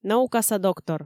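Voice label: "наукаса доктор", Komi, female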